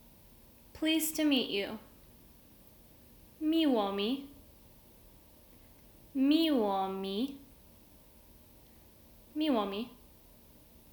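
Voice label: Pleased to meet you, Polish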